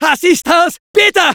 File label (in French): Medic help me, fr; Assistance, bitte !?